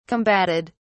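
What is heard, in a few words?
"combatted", British English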